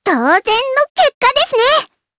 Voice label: Victory, jp; Hanus; kingsraid